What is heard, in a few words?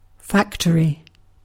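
"factory", English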